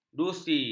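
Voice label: "dusi", Malay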